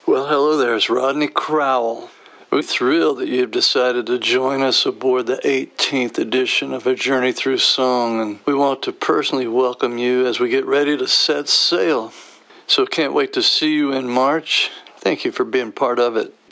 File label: voicemail; cayamo